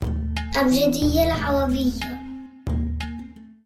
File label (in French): alphabet arabe son